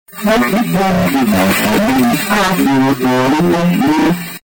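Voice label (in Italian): "a chi guidi", Italian; A chi guidi dà, ccà dì! Abbilo cuore e lì dire!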